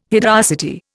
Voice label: "hideosity", Indian